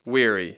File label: "weary", English